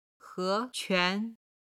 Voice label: "he2 quan2", Chinese

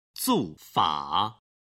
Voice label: "zuofa", Chinese

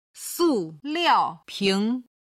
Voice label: "su liao ping", Chinese

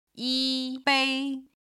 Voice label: "yi1bei1", chinese

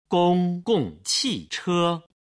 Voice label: "gonggongqiche", Chinese

